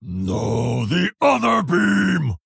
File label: Male Orc Attack